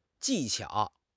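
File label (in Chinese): "技巧", Mandarin Chinese; HSK6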